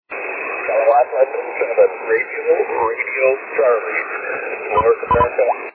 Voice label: 17m ssb